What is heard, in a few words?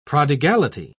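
"prodigality", English, British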